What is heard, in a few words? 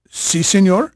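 Spanish male, voice; Pirates Of The Caribbean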